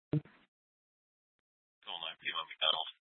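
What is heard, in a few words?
Police Department North Dispatch, scanner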